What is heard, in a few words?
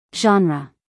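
"genre", American English, female; everyone-can-use-english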